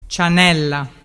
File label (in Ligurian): "cianella", dialettu